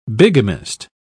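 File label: "bigamist", English, British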